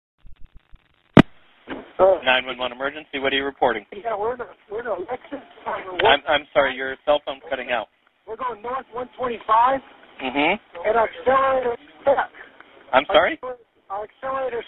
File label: Car Crash 911 Call; Santee